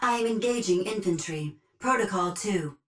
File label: titan Vanguard auto Engage Grunt; Titanfall 2